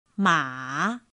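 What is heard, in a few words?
"mǎ", Chinese; pinyin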